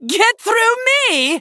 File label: Female Dwarf Attack